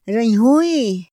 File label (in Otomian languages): ra n´jñuy, Otomí; Días de la semana; INPI; INALI